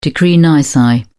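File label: "decree nisi", British English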